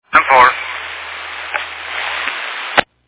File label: Fire Dispatch